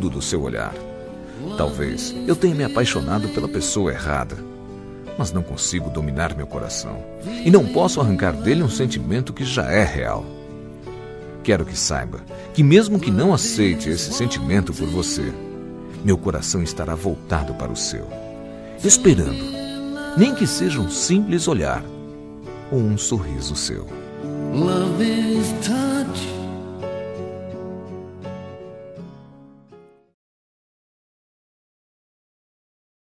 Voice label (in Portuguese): ROM AMOR NAO CORRESPONDIDO MASC, Telemensagens